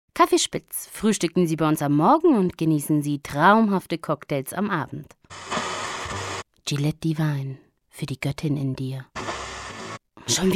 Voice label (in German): werbung; Sprecher in Bonn; Muttersprache Deutsch, Italienisch